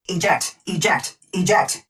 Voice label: eject eject eject, Titan, EN, Tone Voice; Titanfall 2